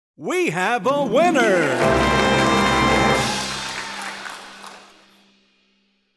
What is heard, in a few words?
Announcer We Have A Winner With Fanfare